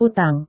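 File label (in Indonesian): "utang", Indonesian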